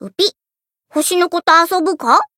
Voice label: encount, jp

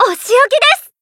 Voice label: finish, jp

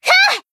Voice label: Voice attack, jp; tsukiha

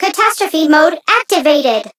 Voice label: Voice chant

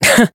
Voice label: Voice damaged; minalca

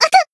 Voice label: damaged jp; leviachan

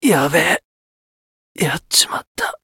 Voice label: retire jp

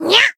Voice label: attack jp; otoha

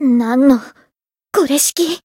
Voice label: retire, jp; azami

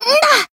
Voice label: Voice attack jp

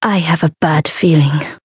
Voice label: Voice encount fear

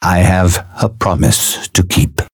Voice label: Voice variable chant

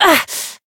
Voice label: Voice damaged

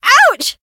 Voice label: Voice damaged

